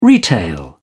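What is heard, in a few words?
"retail", English, British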